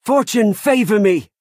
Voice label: Imperial male implore